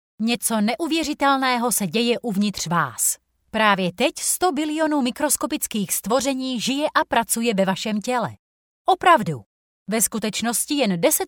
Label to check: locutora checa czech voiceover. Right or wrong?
Right